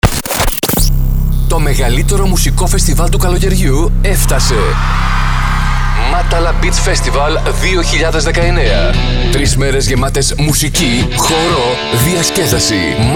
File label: Radio spot; Matala 2019; Matala Beach Festival 2019